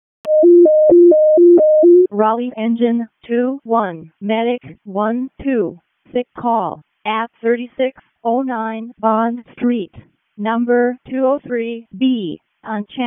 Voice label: WC EMS ALERT, p25